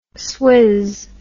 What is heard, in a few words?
"swizz", English word